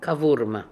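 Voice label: "Kovurma", pronunciations; Fourth Way; Beelzebub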